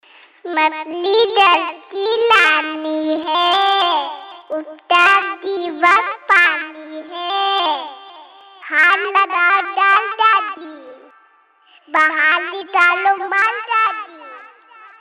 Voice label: baby voice news; children